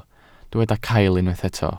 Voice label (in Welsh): "caill", Welsh